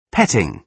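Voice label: "petting", British English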